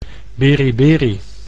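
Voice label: "beriberi", Dutch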